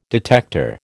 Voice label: "detector", American